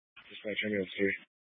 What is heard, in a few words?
Fire Dispatch